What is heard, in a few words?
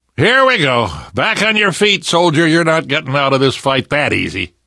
revive friend, left4dead2 survivor voice namvet